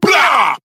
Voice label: "blaow", ooh ahh